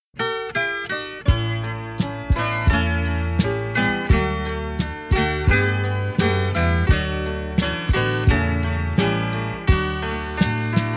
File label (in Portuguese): PARA PAI DISTANTE MASC, Masculina, Telemensagem